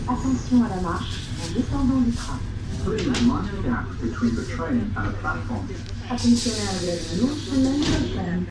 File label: mind the gap, Metro